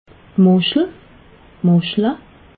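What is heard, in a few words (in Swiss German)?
"muschel", Alsatian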